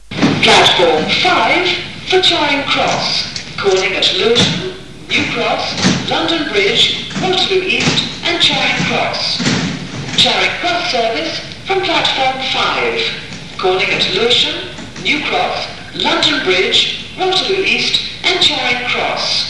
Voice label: Hither Green pre-recorded platform announcement

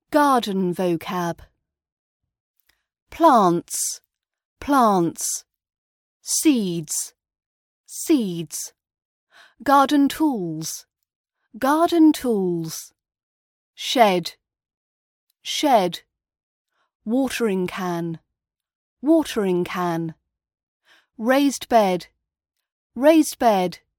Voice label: garden vocab British accent training

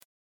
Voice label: outgoing voicemail

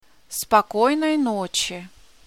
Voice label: good night in russian

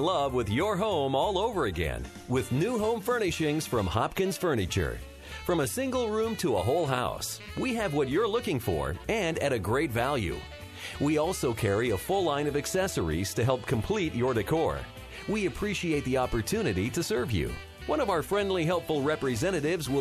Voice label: on hold holiday on hold messaging

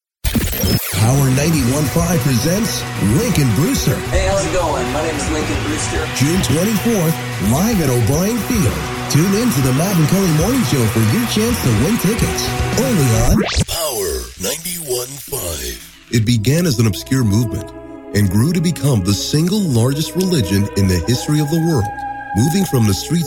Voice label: christian voiceover

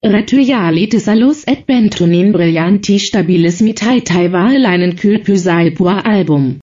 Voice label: finnish pronounciation

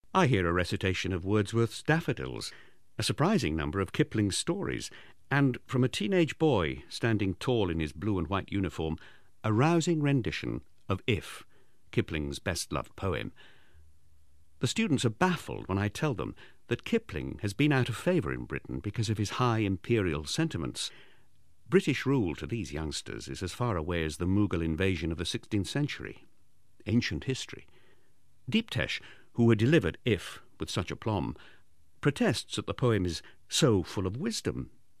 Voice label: english in india

